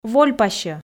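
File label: "вольпасьӧ", Komi, female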